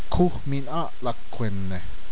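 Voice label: "Grand Canyon", Zuni language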